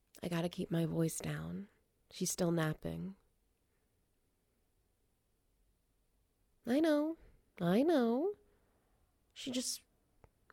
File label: F4TF SFX Wet Noises; Foster Fail